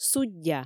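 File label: "суддя", Komi, female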